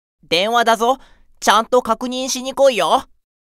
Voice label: Call Notification Voice; Luke